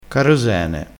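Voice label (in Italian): "carosene", dialettale crespinese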